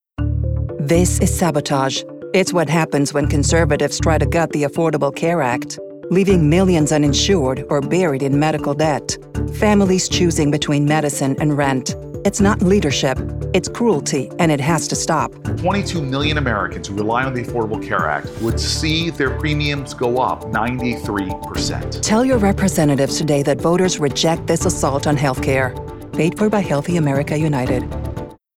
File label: political voice over issue negative english